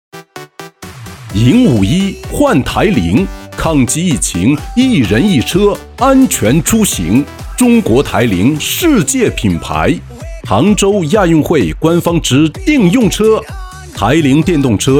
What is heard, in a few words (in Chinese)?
男 促销 浑厚力度; 台铃电动车